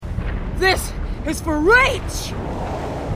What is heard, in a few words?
female marine